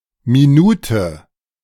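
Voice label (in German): "Minute", German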